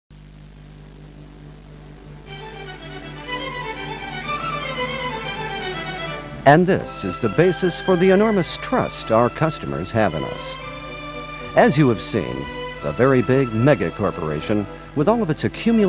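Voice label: narration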